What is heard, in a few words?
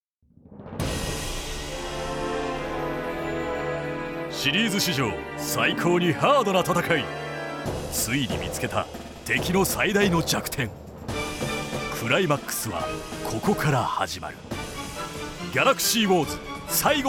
narration